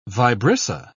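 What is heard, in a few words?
"vibrissa", British English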